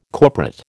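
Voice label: "corporate", American English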